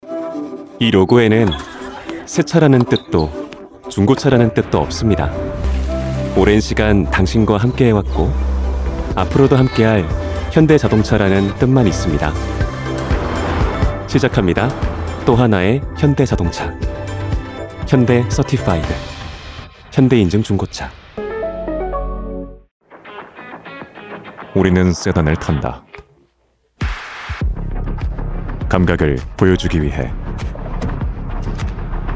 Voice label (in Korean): 남성 (Male)